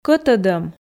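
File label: "кӧтӧдӧм", Komi, female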